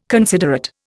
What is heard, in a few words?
"considerate", Indian